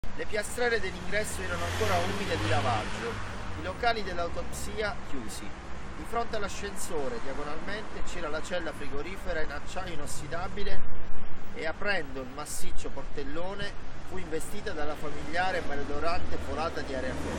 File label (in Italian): Esterna VideoMic Me con antivento Piano Americano caos sottofondo; nerdvana